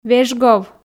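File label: "вежгов", Komi, female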